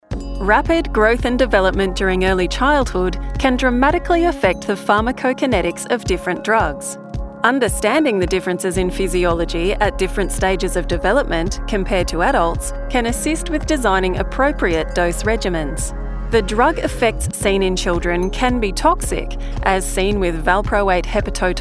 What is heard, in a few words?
Pharmacokinetics, medical narration